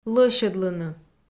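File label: "лӧсьӧдлыны", Komi, female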